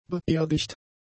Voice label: "beerdigt", German; adjectives